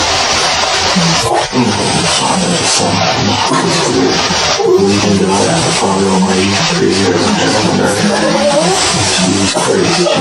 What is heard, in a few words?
Young child's voice "Hello…..Mommy?"; Case: Private investigation